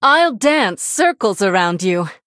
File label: Female Human Start; en